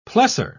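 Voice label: "plessor", British English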